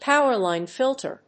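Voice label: "power-line filter", English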